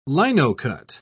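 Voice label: "linocut", British English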